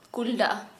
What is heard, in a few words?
sick, Kharia